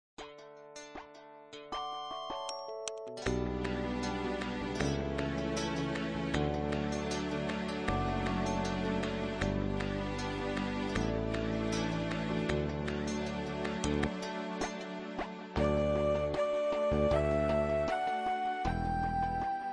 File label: southern oriya; servants of god